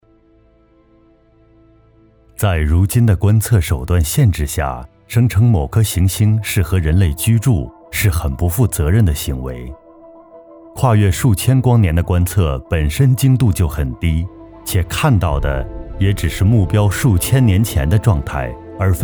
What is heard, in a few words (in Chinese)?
科普纪录片类